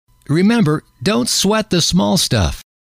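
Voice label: remember Don T Sweat The Small Stuff, male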